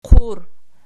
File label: "qur", Archi